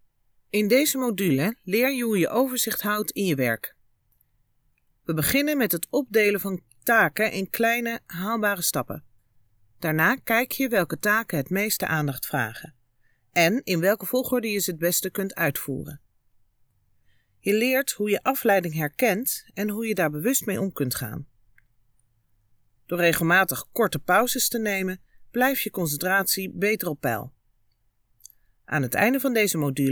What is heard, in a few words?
elearning